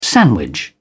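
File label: "sandwich", British English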